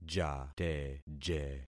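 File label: "jateje", concatenated syllables; Affixing experiment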